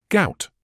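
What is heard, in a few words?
"gout", British English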